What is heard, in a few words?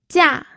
"jia4", pinyin audio